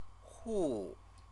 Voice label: "hu", Chinese; CTCFL; University of Oxford